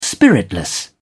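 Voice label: "spiritless", British English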